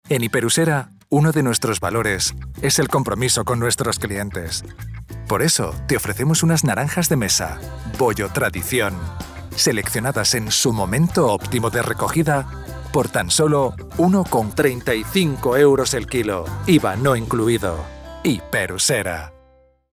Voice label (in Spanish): CUÑA EXTRA CON PRECIO CASH; DESTACAR NARANJAS